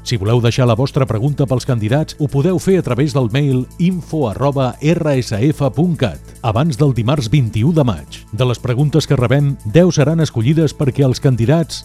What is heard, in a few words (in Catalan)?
Promo Debat